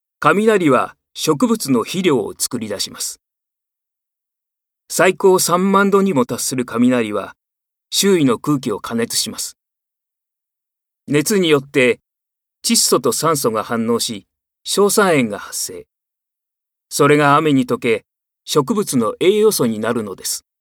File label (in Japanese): 解説ナレ